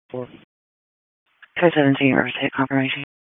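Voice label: Police Dispatch, p25; Wake Forest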